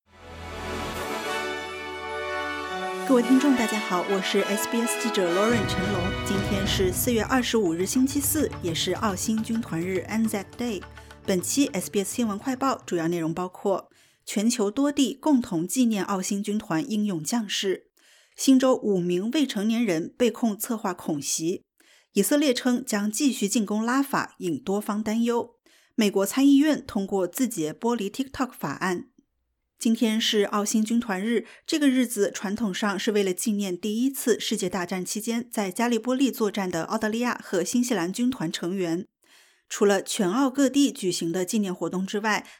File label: mandarin news flash; sbs